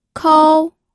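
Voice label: "kou", first tone, pinyin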